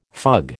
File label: "fug", American